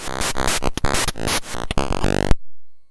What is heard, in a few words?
Speech, MOS6581R2